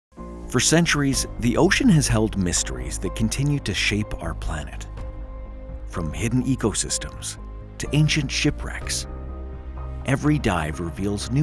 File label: english canadian documentaries